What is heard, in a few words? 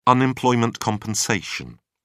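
"unemployment compensation", British English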